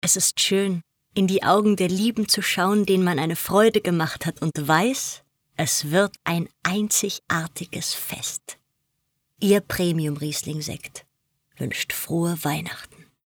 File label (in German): Werbung Premiumsekt; LOFT VOICES